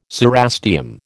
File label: "cerastium", American